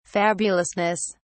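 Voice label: "fabulousness", British English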